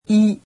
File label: "wi", japanese; alphabet